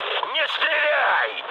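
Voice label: player friendly fire, mood med, Russian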